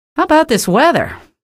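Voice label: citizen remarks, female; vo; npc; source engine; episodic; episode 1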